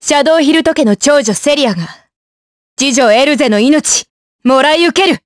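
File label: vox dialogue, voices, Japanese; Seria; kingsraid audio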